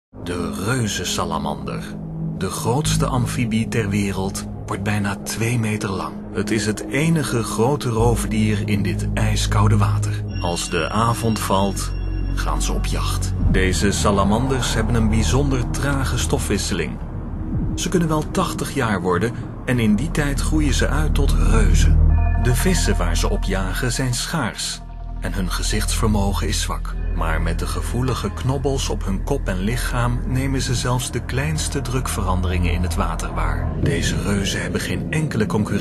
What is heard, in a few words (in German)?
nl, maenner; bbc planet earth